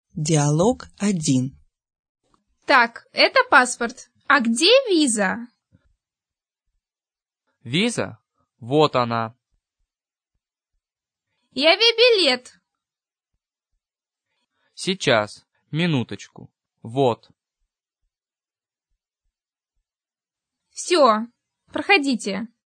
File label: dialog, russian